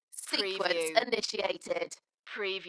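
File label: British female Sequence initiated; Dalekness; beanstalk audio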